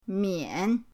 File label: "mian3", pinyin